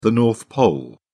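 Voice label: "north pole", British English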